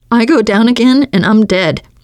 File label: going to die, teengirl, voice